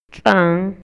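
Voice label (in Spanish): Maya, Yucatán, male; CANTIDAD; INALI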